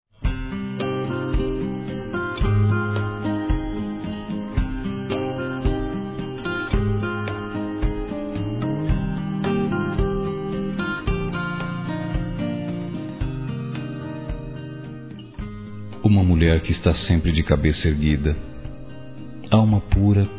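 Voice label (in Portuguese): MÃE, male, Telemensagens Dia das Mães; Masc; Telemensagens 2017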